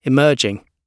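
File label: "emerging", British English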